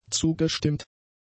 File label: "zugestimmt", German; adjectives